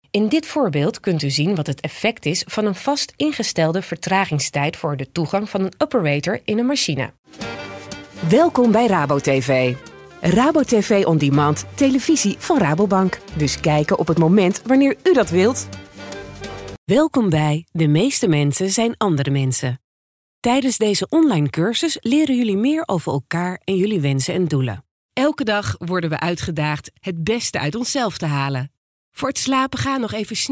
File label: Voice Demo